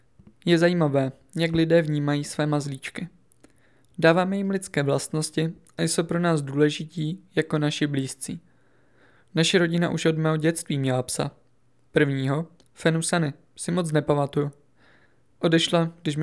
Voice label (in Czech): narace